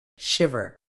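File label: "shiver", British English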